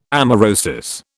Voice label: "amaurosis", British